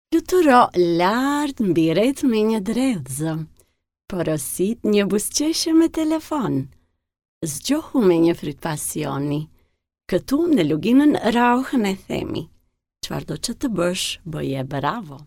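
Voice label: Albanian, female, narration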